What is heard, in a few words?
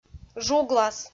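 "жуглас", Komi, female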